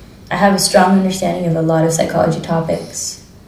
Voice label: female filler; interviewee